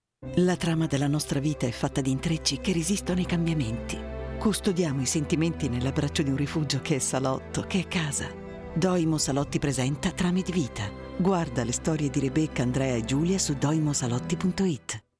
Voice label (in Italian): spot; doimo